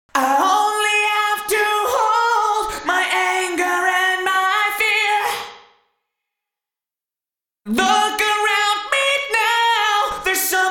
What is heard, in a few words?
Vocals Ursa Major Space Station Room Echo 90ms